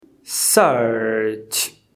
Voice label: "search", English